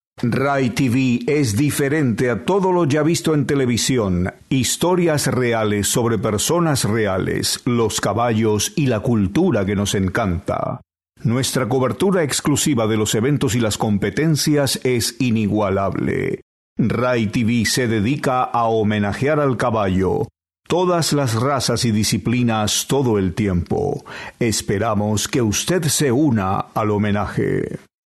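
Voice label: Spanish, male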